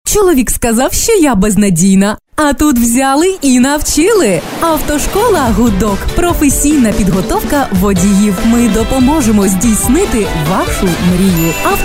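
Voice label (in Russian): голоса, Ukrainian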